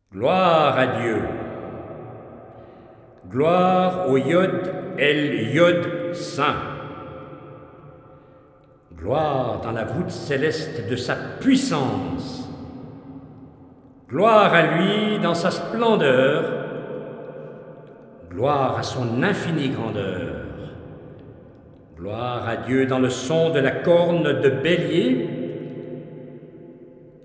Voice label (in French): Narration psaume